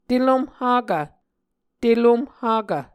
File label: "telomh hakv", Muscogee